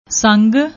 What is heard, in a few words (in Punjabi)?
"sang", Punjabi; ਸੰਗ